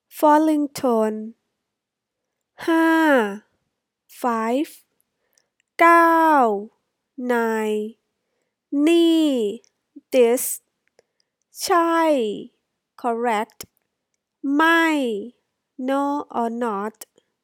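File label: falling tone, Thai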